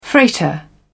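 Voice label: "freighter", British English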